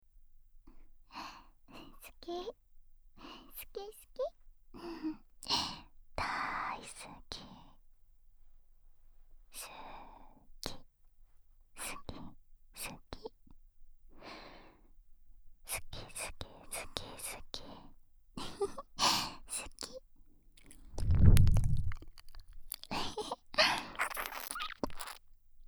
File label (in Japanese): 好き好き大好き耳元囁き, ASMR